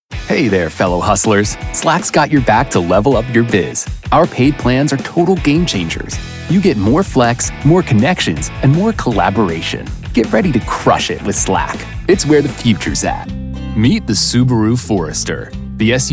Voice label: corporate narration

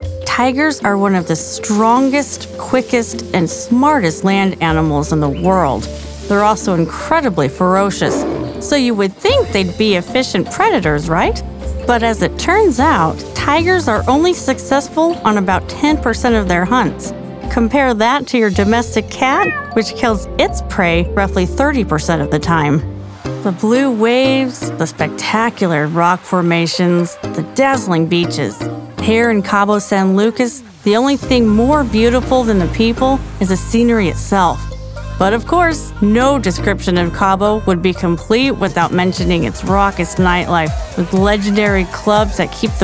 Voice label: Narration

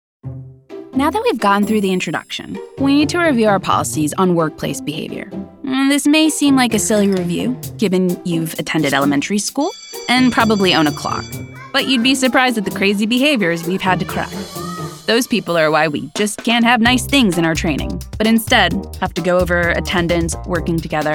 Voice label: E LEARNING

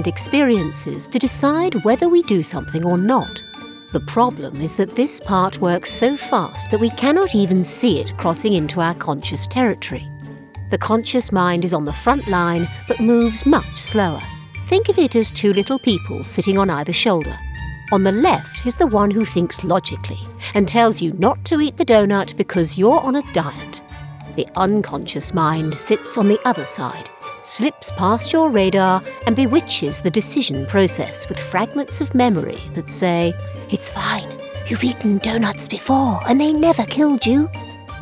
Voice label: Narration Straight RP

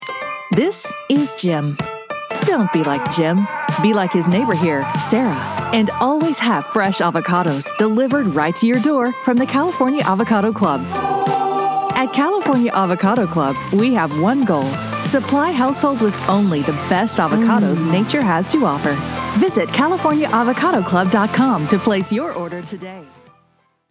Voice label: Humor Upbeat; California Avocado Club

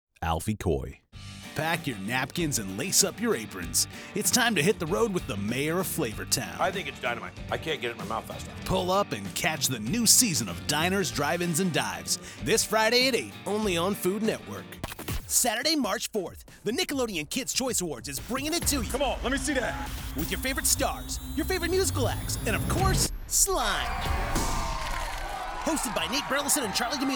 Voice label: Promo

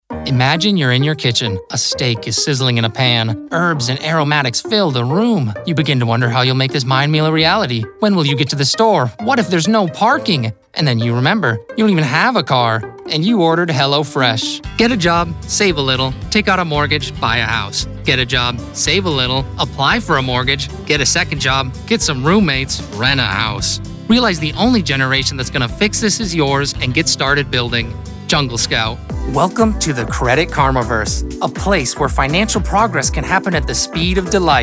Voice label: vo commercial

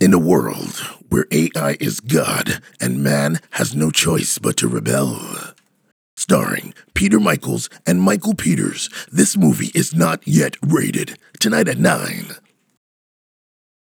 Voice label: VOICE OVER

